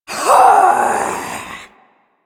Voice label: Ability Throw, Barks; Warframe; Corpus Lich; Persona D

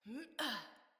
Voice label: Mount Female, Battle Barks; Envoy

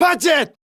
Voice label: Surprised Male, Battle Barks; en; Modular Corpus

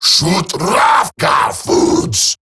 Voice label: Cover Me Eximus Grineer Male; Battle Barks

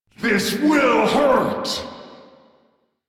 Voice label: Tactical, Battle Barks

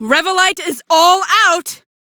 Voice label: Crew Need Revolite, female; Warframe; Railjack; Cephalon Suda

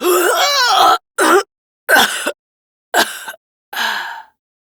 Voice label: Death, Female; Warframe; Railjack; Steel Meridian

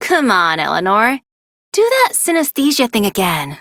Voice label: Idle Barks, en; Aoi

